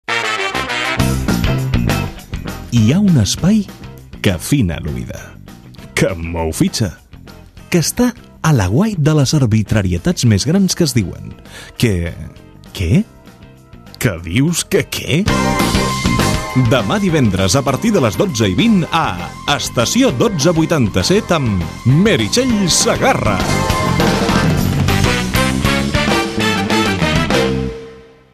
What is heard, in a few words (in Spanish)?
Publicidad Radio voces comerciales musica